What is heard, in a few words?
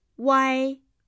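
"uai", Chinese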